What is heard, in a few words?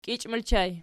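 "kiichi" ergative plural, Archi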